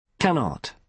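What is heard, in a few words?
"cannot", British English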